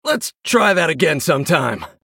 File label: male human concede